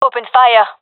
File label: attacking, gendarmerie fem